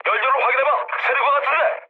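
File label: Korean tank damaged mood med, speech; War Thunder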